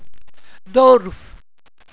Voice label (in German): "dourf", walser